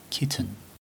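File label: "kitten", British English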